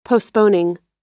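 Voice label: "postponing", American English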